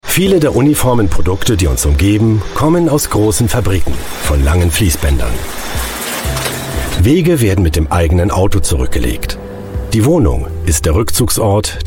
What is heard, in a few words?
german voice over male narrator